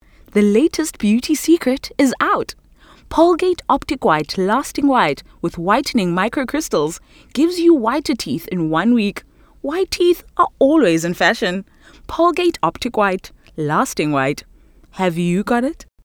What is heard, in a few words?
English Bright; OSM Talent